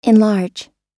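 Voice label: "enlarge", US English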